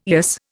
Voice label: "eyas", Indian